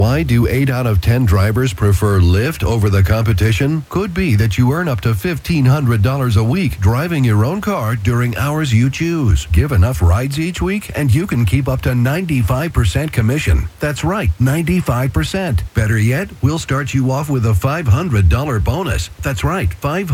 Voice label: Radio Commercial; Lyft